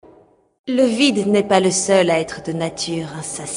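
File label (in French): Female Flirt, voix françaises; Elfe du Vide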